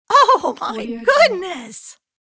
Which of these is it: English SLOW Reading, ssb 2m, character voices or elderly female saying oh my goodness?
elderly female saying oh my goodness